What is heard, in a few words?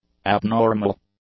"abnormal", english; adjectives